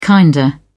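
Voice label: "kinda", British English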